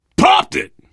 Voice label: kill confirmation, biker, survivor voice; Left 4 Dead 2